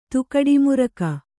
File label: "ತುಕಡಿಮುರಕ", Kannada